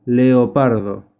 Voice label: Leopard spanish